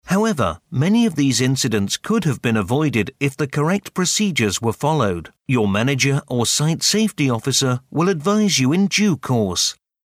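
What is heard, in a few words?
British e-learning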